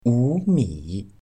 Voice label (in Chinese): "五米", Chinese